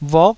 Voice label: "vuk9", taiwanese